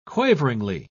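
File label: "quaveringly", British English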